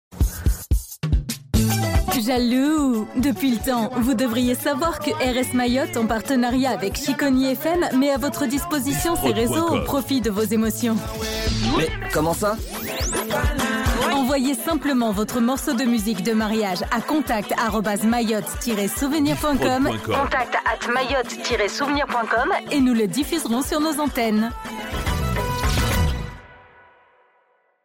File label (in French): Spot Musique Mariage, publicite; RS Mayotte